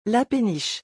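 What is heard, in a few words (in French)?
"la péniche", French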